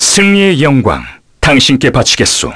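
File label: Vox Victory, Korean; voices; heroes; Fluss; kingsraid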